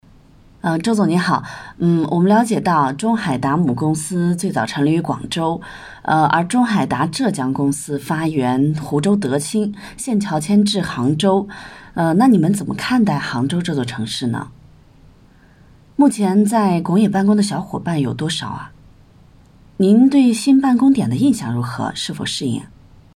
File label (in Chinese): B女 模拟现场采访自然素人